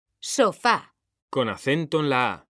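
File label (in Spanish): "sofá", Spanish; mekanta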